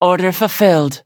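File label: victory, English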